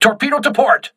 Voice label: voice message torpedo left, crew ships english